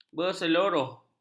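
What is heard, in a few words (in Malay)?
"berseloroh", Malay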